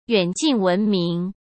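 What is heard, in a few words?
"远近闻名", Mandarin Chinese; chinese-flashcards; hsk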